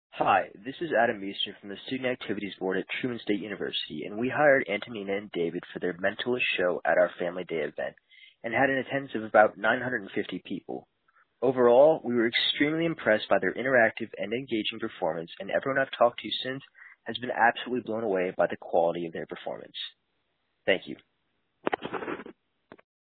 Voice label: voicemail; trumanstate